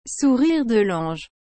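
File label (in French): "sourire de lange", French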